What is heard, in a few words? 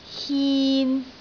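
"hin3", Cantonese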